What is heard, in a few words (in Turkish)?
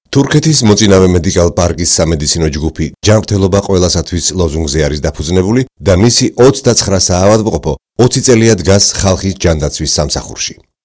Gurcuce Erkek; seslendirme